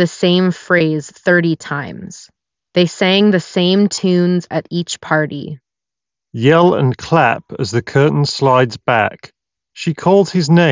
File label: Jabra Elite 45H Ideal microphone sample